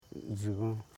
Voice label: "dgi gon", Koro